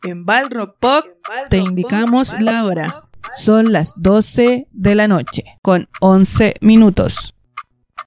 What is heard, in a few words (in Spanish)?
locucion